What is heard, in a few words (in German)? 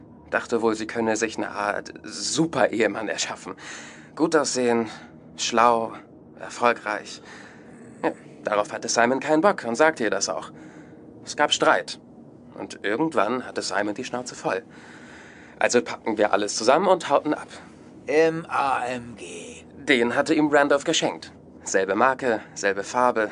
Hoerspiel; Lost Minds